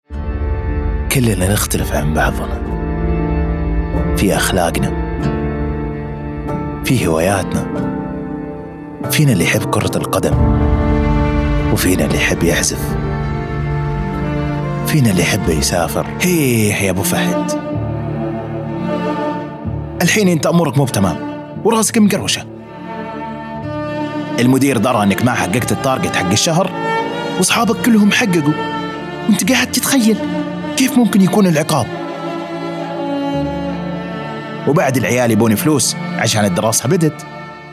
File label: Saudi Commercial